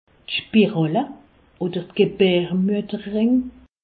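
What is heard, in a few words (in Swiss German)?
"spirale", Alsatian; medecine; stérilet dispositif intra utérin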